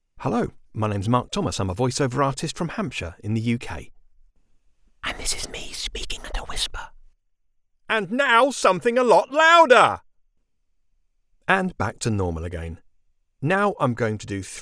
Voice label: Raw Studio